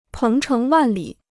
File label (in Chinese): "鹏程万里", Chinese